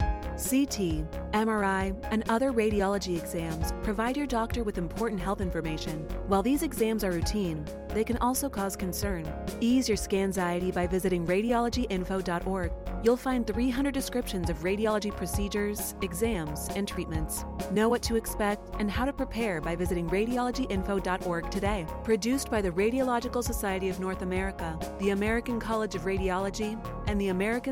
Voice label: female; eng; 30s; psas for radio